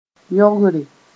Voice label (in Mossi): "yõgre", moore